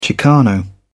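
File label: "chicano", British English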